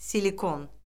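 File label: "silikon", Azerbaijani; Orfoqrafiya Lüğəti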